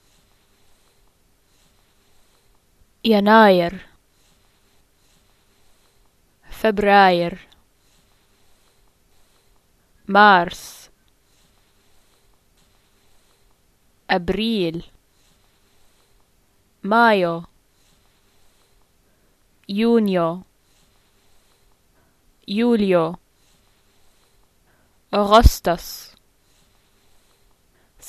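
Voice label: western dates, Jordanian Arabic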